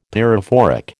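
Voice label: "pyrophoric", American English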